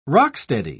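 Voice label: "rock-steady", British English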